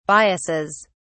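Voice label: "biases", British English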